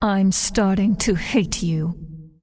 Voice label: Undead Female Warrior Pissed; NPC

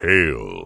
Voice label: Tauren Male Warrior NPC Greeting

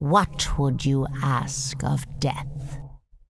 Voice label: Undead Female Magic NPC Greeting

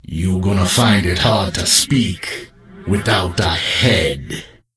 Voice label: Death Knight Troll Male Pissed

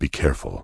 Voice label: Tauren Male Warrior NPC Farewell

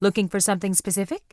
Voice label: Human Female Standard NPC Vendor

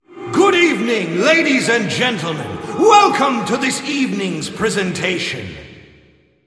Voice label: Announce; Barnes The Stage Manager

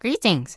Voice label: Gnome Male Young Greeting; NPC

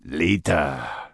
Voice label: Troll Male Standard Farewell; NPC

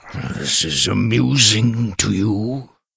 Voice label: Tuskarr Male Pissed; Warrior

